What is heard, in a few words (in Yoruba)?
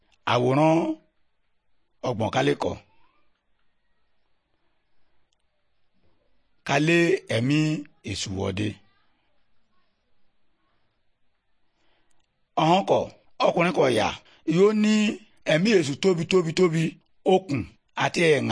good news yoruba, bible